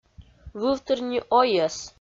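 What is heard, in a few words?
"вывтыр ни ойӧс", Komi, female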